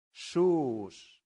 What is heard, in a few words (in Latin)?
"suus", latin; santillana